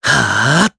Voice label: Vox Casting jp; Evan; kingsraid

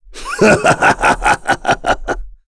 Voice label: Vox Happy; KaselB; kingsraid; voices heroes en

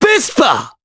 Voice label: Vox, jp; Mitra; Vespa; kingsraid

